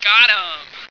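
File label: got him, female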